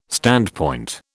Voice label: "standpoint", British